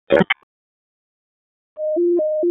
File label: EMS ALERT, p25; wake